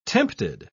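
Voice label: "tempted", British English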